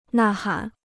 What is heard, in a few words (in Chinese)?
"呐喊", Chinese